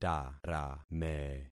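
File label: "tarame", concatenated syllables; Affixing experiment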